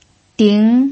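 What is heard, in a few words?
"ding", Mandarin Chinese, second tone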